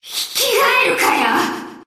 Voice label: Enemy Voice Damaged Attack